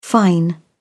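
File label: "fine", British English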